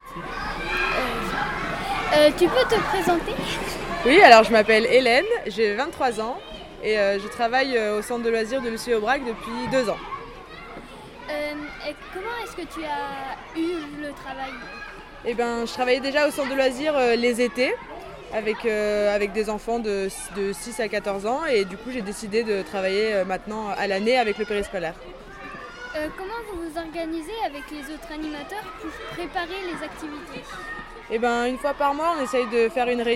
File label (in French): animatrice